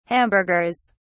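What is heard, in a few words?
"hamburgers", British English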